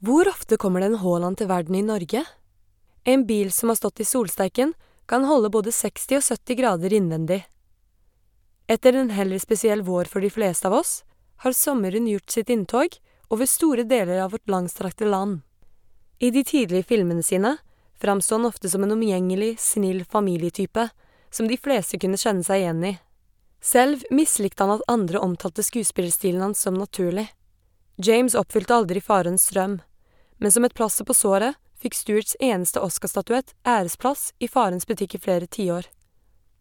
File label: Norwegian F Voicereel